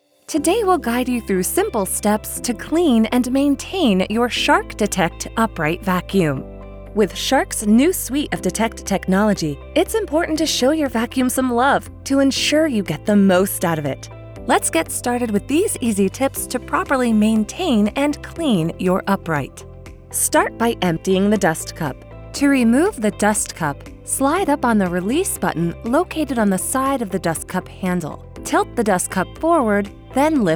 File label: Instructional Narration; Shark Vacuum